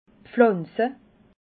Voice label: "pflanze", alsace

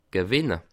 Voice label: "gewinne", alsace

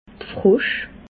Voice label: "frosch", alsace

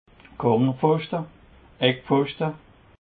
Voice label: "cornerpfoschte", alsace

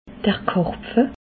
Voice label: "karpfe", alsace

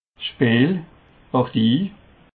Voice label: "speel", alsace

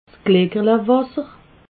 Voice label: "glickerlewasser", alsace

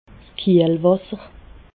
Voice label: "kiehlwasser", alsace

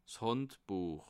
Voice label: "handbuech", alsace

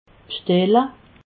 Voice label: "bstelle", alsace

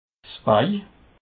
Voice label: "bei", Alsace

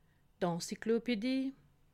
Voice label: "encyclopedie", alsace

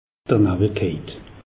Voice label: "nawwel", alsace; meteo

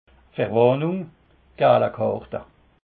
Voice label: "verwarnung", alsace

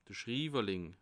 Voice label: "schriwerling", alsace

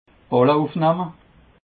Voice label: "balle ufnehme", alsace

